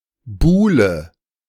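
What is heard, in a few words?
"buhle", German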